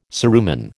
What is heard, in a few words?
"cerumen", American